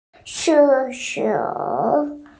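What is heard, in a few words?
"zi3shu3", Chinese, female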